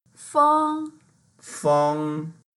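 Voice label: "feng1", Mandarin Chinese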